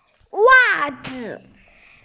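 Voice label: "socks", hearing impaired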